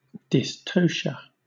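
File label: "dystocia", British English; pronunciation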